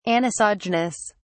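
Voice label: "anisogenous", British English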